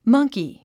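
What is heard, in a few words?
"monkey", English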